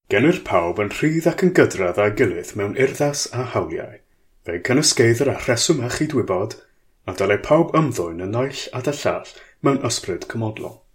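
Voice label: Welsh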